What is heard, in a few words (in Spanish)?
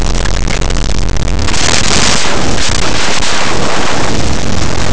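"didactico", Spanish; laboratori fonetica; paraules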